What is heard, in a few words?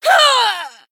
Female Blood Elf Death